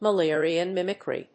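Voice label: "Mullerian mimicry", English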